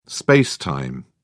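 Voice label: "space-time", English, British English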